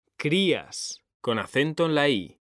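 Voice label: "CRÍAS", Spanish; mekanta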